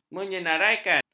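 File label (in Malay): "menyenaraikan", Malay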